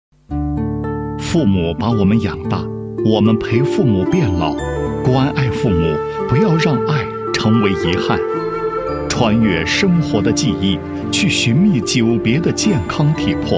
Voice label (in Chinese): 男 医疗专题 医堂宣传介绍; 蚁干堂